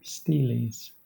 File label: "steles", en